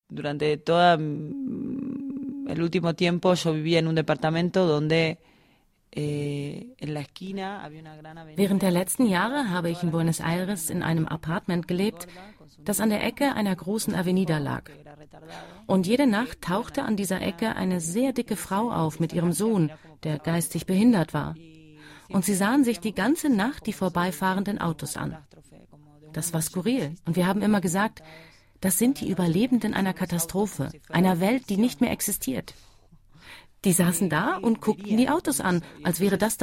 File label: Voiceover